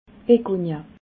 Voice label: "begonia", alsace